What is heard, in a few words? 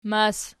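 "mas" nominative, Archi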